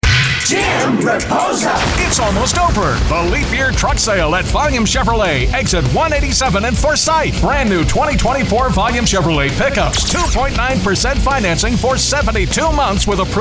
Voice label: Auto VO